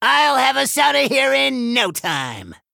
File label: Male Gnome; Scabbs